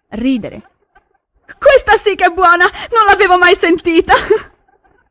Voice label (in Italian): ridere